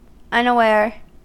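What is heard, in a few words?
"unaware", US English; pronunciations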